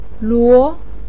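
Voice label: "luo", Mandarin pinyin, second tone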